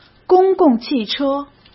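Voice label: "公共汽车", Mandarin Chinese; hsk; chinese-flashcards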